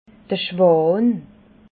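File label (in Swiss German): "schwan", Alsatian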